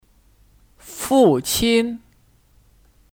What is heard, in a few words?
"父亲", Fùqīn; Kata benda; Ayah(Alternatif dari 爸爸 Bàba = papa)